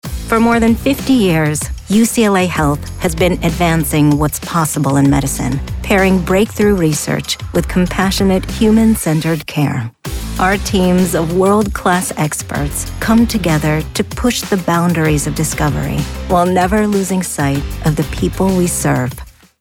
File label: corporate voiceover; Uplifting, Inspirational; UCLA Health